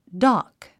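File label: "dock", English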